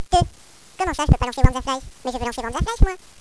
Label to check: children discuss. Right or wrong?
Right